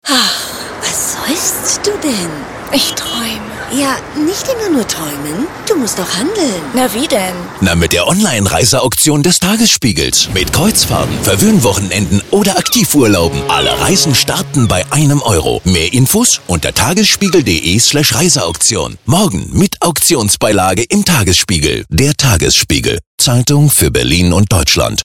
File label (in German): werbespot; tagesspiegel